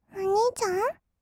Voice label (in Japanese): おにいちゃん？, kawaii voice; ASMR; 二人称; 1200 kawaii voice!!